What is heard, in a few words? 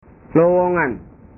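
"lowongan", Indonesian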